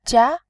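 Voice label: "jia", Mandarin Chinese, second tone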